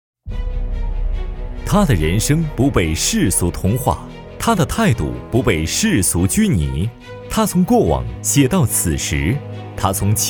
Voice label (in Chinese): 专题 人物 人物介绍, 男国